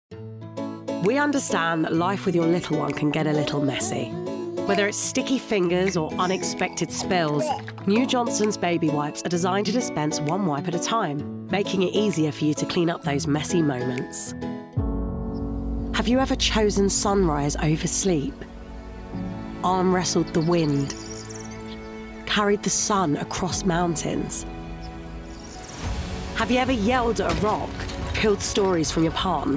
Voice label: Welsh Commercial Montage